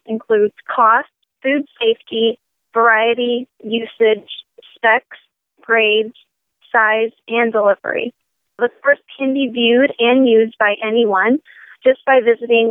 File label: Almond board e learning